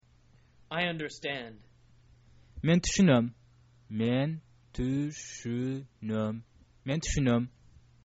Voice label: i understand, kyrgyz

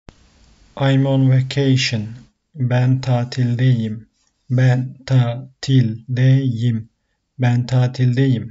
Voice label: I am on vacation, turkish; the talking turkish phrasebook

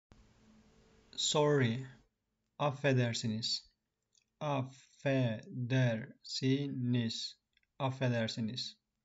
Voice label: sorry, Turkish; the talking turkish phrasebook

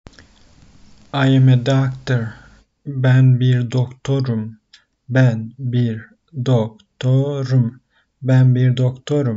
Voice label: I am a doctor, Turkish phrasebook; the talking turkish phrasebook

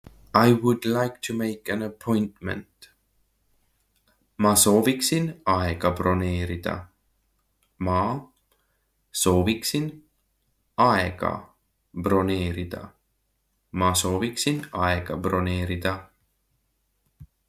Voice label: I would like to make an appointment, estonian; the talking estonian phrasebook

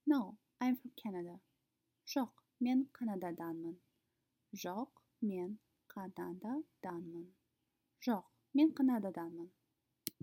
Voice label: Kazakh No I am from Canada

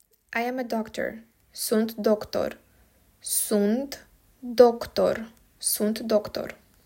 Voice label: I am a doctor, romanian

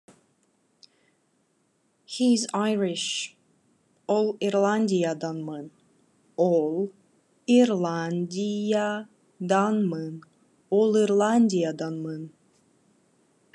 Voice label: Khakas He is Irish

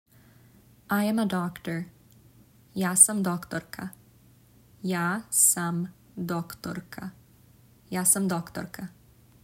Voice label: "I am a doctor", Serbian

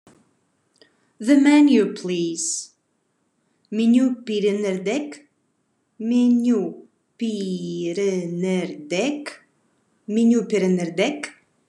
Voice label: Khakas The menu please

